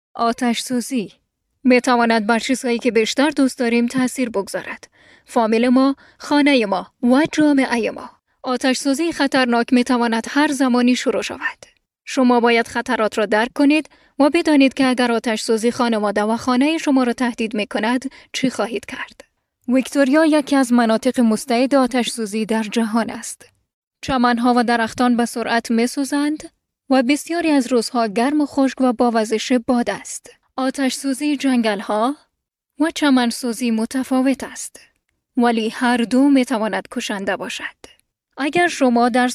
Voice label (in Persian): Dari Fire control in Victoria Australia